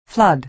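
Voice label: "flood", American English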